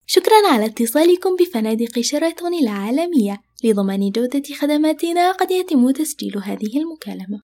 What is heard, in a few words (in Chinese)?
阿拉伯语女 IVR语音应答系统